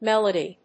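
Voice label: "melodie", English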